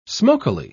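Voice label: "smokily", British English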